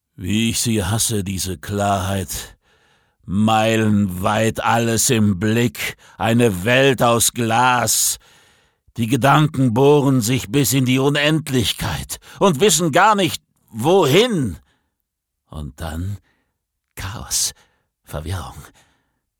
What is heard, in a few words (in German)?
Schauspiel Dynamisch